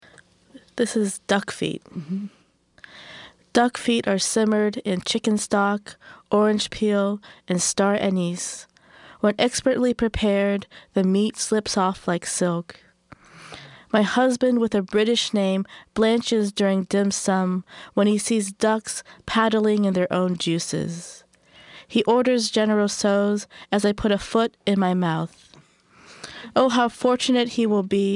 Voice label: Poetry; Duck Feet